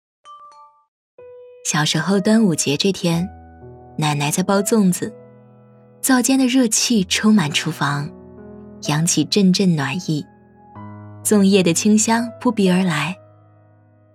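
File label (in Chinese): 女国大音-节气-旁白; 端午节; 文旅配音; 文旅节气样音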